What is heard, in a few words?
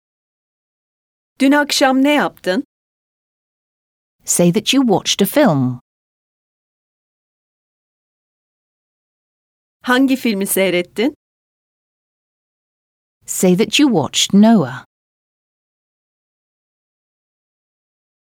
Speaking, Turkish; Complete Turkish Teach Yourself